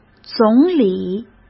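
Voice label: "总理", Mandarin Chinese; hsk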